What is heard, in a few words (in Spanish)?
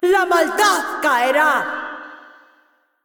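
assist, Mexican Spanish; VO; es MX; Kayle; bloom minigame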